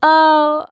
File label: "oh" female